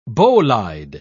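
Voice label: "bolide", British English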